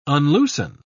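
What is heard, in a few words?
"unloosen", English, British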